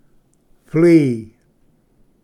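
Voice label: "flea", English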